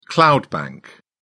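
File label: "cloud bank", British English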